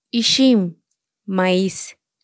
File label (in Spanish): Palabras en tsotsil, Ixim - Maíz